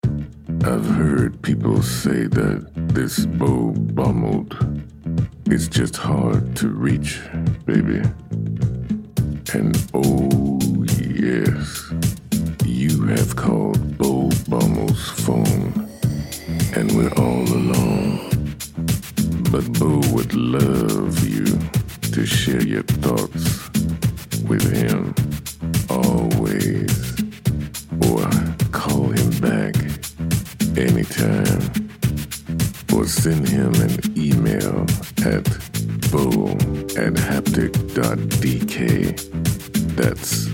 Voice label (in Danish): funky efterårstelefonsvarer